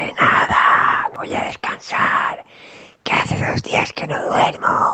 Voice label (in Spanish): de nada voy a descansar susurro